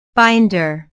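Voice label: "binder", English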